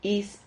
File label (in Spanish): "his", Silabas